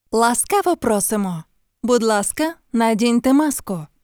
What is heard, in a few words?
ukr, voice notifications